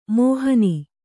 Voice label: "ಮೋಹನಿ", kannada

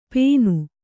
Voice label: "ಪೇನು", Kannada

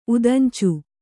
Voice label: "ಉದಂಚು", Kannada

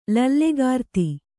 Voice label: "ಲೀಲೆಗಾರ್ತಿ", Kannada

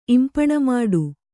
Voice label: "ಇಂಪಣಮಾಡು", Kannada

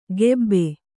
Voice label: "ಕೆಬ್ಬೆ", kannada

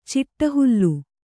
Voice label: "ಚಿಟ್ಟಹುಲ್ಲು", Kannada

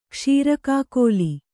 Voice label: "ಕ್ಷೀರಕಾಕೋಲಿ", kannada

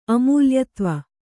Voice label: "ಅಮೂಲ್ಯತ್ವ", kannada

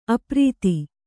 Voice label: "ಅಪ್ರತಿ", kannada

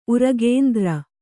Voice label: "ಉರಗೇಂದ್ರ", Kannada; uragēndra; noun

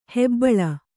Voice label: "ಹೆಬ್ಬುಲಿ", Kannada

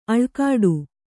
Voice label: "ಅಳ್ಕಾಡು", kannada

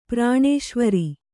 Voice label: "ಪ್ರಾಣೇಶ್ವರಿ", Kannada

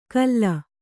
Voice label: "ಕಲ್ಲ", Kannada

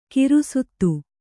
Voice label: "ಗ್ರಸ್ತ", Kannada

